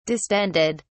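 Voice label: "distended", British English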